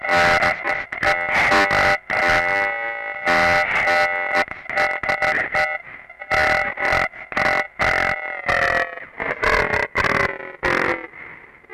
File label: EMS voice; Syntec; Wall of Sounds; TECHNO-BOX